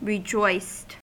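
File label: "rejoiced", American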